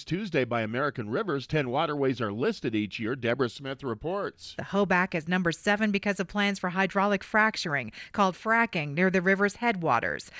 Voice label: wyoming radio news